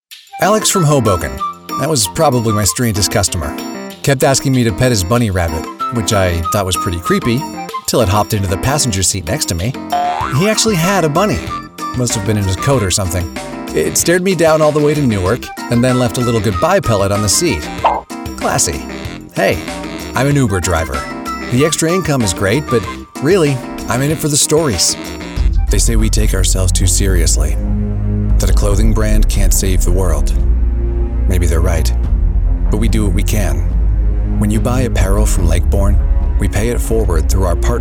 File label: Voice Actor Commercial